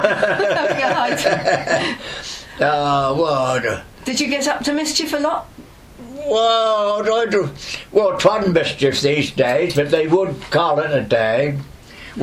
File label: Male